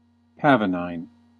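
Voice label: "pavonine", US English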